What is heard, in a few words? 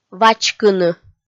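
"вачкыны", Komi, female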